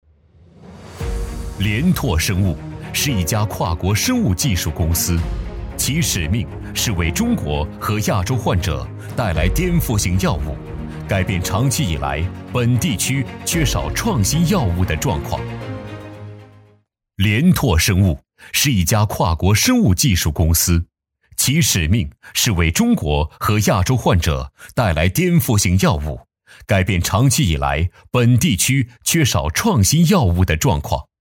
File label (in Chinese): 男 公司宣传片